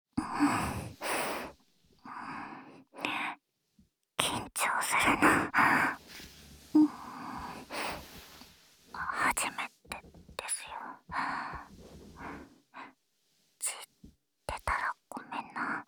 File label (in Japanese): キス&囁き&耳舐め, ASMR; nonSE; ホテル; 騎乗位・挿入&正常位・ピストン&正常位・中出し; 液体音無し